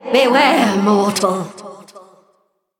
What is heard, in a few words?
Female Dragon Attack